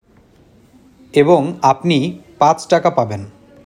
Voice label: male